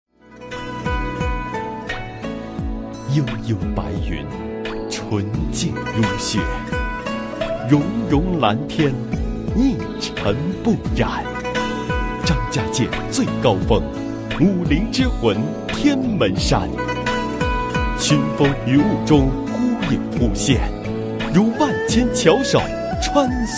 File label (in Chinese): 男, 国语男声配音; 张家界福建推介会系列; 天门山